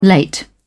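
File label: "late", British English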